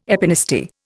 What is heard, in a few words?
"epinasty", Indian